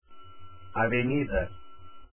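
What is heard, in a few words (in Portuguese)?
"avenida", Portuguese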